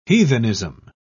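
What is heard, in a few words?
"heathenism", British English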